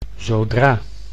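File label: "zodra", Dutch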